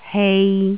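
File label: "hei3", Jyutping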